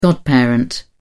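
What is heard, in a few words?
"godparent", British English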